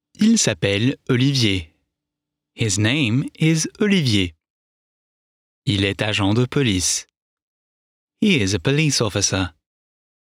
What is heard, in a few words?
FRENCH Learn French